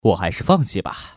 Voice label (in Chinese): fangqi, man